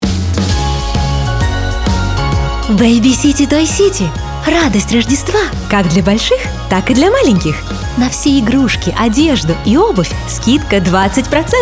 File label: Radio RU